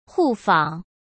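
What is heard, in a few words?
"互访", Mandarin Chinese; chinese-flashcards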